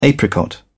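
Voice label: "apricot", British English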